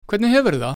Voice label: "Hvernig hefurðu það?", Icelandic; Icelandic page 15

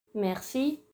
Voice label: "Merci", Luxembourgish; Luxembourgish page 29

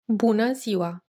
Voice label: "Bună ziua", Romanian; Romanian page 17

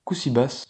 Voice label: "Cossí vas?", Occitan; How are you?; Occitan page 20

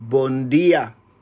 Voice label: "Bon dia", Papiamento; Papiamento page 39

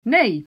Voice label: "nee", Frisian; Frisian page 6

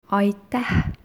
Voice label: "Aitäh", Estonian; Thanks!; Estonian page 16

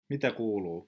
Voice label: "Mitä kuuluu?", Finnish; Finnish page 20

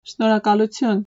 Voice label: "Շնորհակալություն", Armenian; Armenian page 27

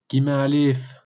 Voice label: "Kimint alez-v'?", Walloon; Walloon page 23

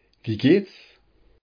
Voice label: "Wie geht's?", German; German page 23

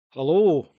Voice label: "Hullo", Scots; Scots page 28

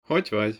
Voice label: "Hogy vagy?", Hungarian; Hungarian page 36

